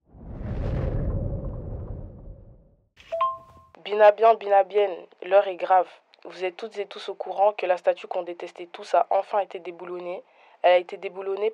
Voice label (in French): Message vocal; monument lumumba